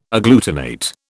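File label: "agglutinate", British English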